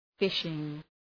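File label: "fishing", English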